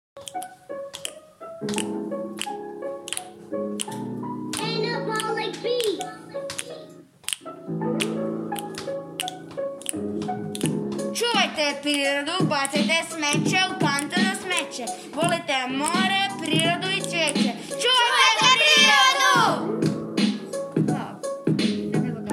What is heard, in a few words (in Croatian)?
reklama